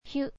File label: "hyu", kana